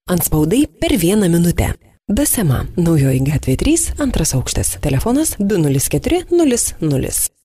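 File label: Lithuanian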